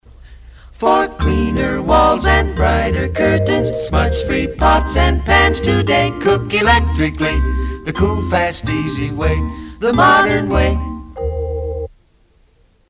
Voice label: Cook Electrically Ad